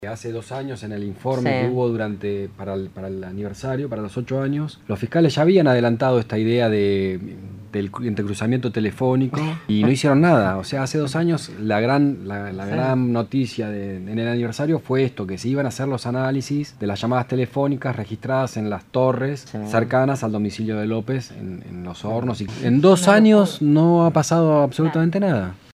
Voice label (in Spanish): cruces telefónicos; vigilia sonora por jorge julio lopez